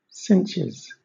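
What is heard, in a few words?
"cinches", English, British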